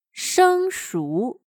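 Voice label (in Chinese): "生熟", Chinese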